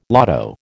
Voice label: "lotto", American